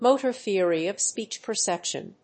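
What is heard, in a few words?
"motor theory of speech perception", English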